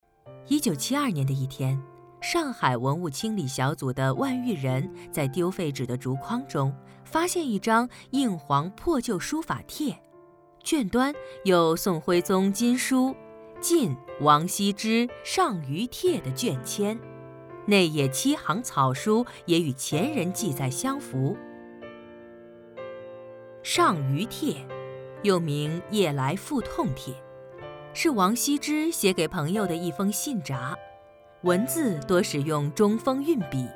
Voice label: 女 专题片 记录风格; 书法上虞帖

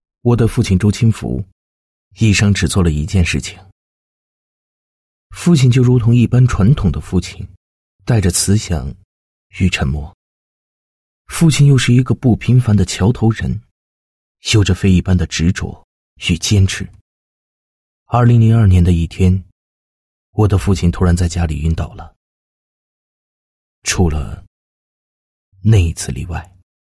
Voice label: 男, 独白; 我的父亲

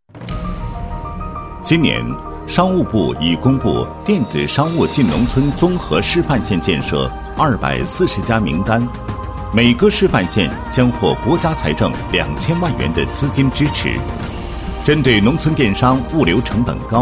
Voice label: 男 学校; 半汤商学院

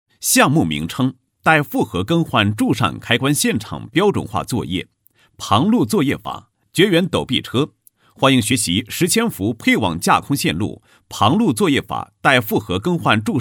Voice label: 男, 项目; 带负荷更换柱上开关现场标准化作业配音

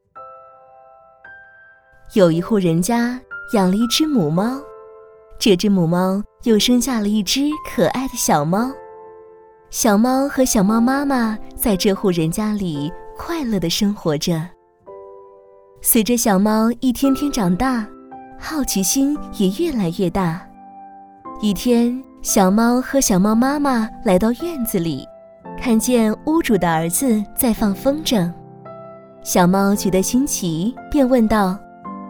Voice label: 女 儿童故事

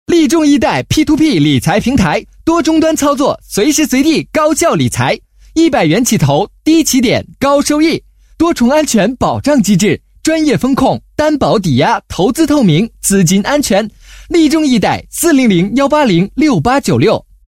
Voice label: 男 广告; P2P理财平台利众e贷宣传广告

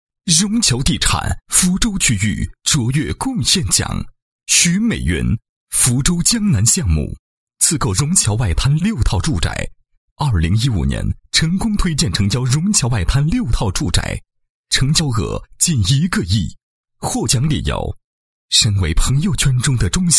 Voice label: 男 颁奖; 融侨地产卓越贡献奖

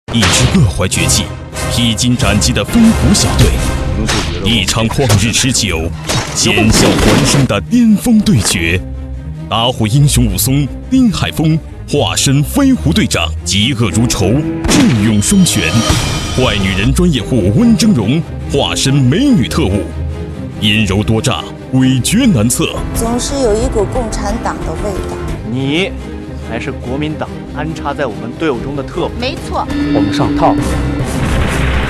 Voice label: 男 电视剧导视 热播, 国语男声配音 浑厚大气

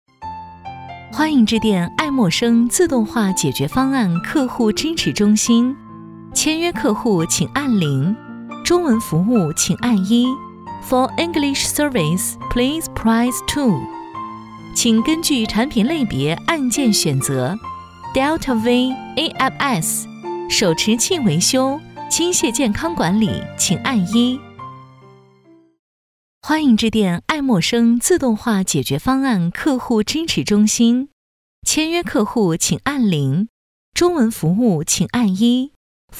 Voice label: 彩铃; 艾默生自动化

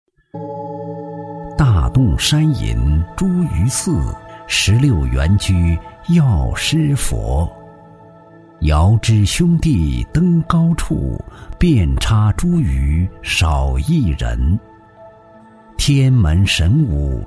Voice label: 男, 国语男声配音, 磁性浑厚抒情; 茱萸寺; 男9磁性浑厚抒情

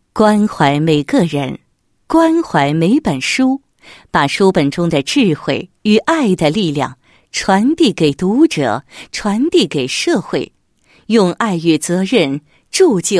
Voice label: 女 企业; 呼和浩特市新华书店有限公司

